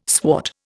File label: "swart", Indian